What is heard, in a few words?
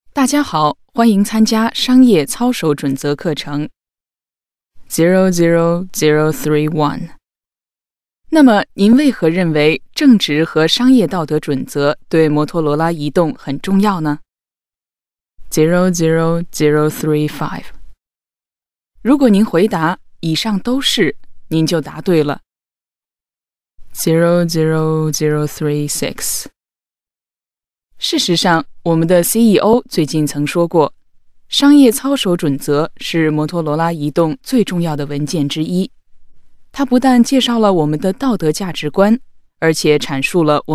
Voiceovers UK Mandarin